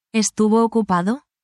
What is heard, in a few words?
spanish, spanish phrases